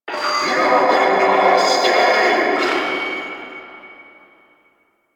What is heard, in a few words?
Vocal Quest Loudspeaker, Town Escape